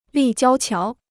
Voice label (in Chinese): "立交桥", Chinese